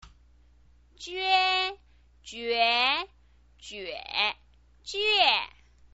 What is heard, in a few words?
"jue", Chinese pinyin voice